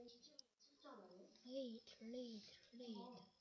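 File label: "lead", spoken word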